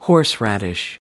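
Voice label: "horseradish", American English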